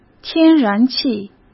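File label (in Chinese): "天然气", Mandarin; chinese flashcards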